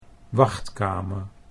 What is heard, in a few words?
"wachtkamer", Dutch